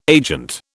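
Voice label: "agent", British English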